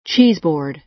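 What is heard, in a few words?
"cheeseboard", American English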